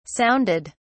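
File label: "sounded", British English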